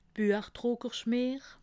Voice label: "buechdruckerschmeer", alsace

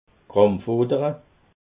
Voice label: "krampfodere", alsace

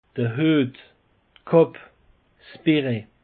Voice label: "huet", alsace; peche

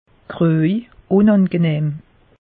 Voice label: "groj", alsace; meteo

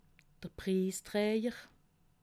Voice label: "prisstrajer", alsace

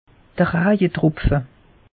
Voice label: "raje tropfe", alsace; meteo

